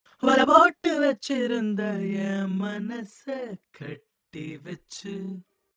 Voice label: Vocal Male Tamil dynamic